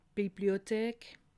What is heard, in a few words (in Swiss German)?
"bibliothek", alsace